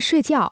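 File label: "睡觉", Chinese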